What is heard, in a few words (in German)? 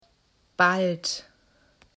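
"bald", German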